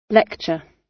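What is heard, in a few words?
"lecture", British English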